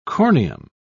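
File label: "corneum", British English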